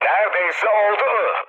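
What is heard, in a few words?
Turkish bomb failure, mood med, speech; War Thunder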